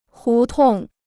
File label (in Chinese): "胡同", Chinese